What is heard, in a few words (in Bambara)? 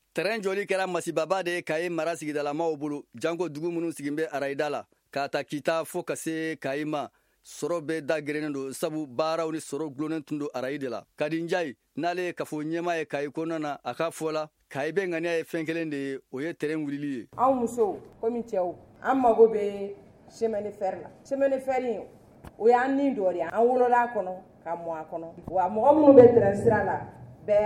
magazine bamanankan